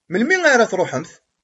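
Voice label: "Melmi ara ad tṛuḥem?", Kabyle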